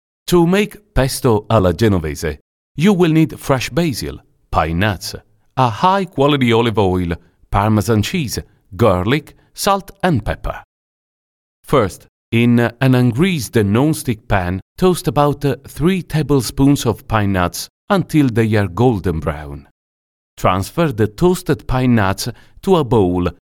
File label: English Italian Accent Male